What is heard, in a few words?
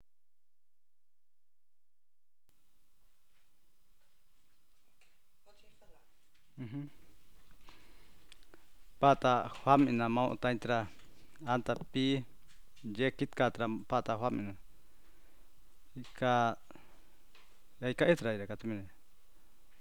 fishing narrative